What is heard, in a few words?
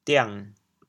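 "diang6", Teochew, chaoyang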